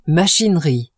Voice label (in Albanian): "machinerie", frengjisht